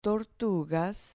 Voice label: "tortugas", Spanish

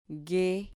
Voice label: "gue", Spanish syllable

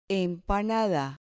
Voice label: "empanada", Spanish

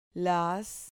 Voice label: "las", Spanish syllable

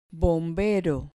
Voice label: "bombero", Spanish

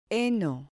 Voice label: "heno", Spanish

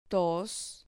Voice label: "tos", Spanish syllable

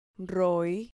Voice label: "roi", Spanish syllable

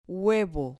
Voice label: "huevo", Spanish

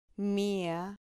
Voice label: "mia", Spanish syllable

